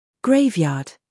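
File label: "humor", British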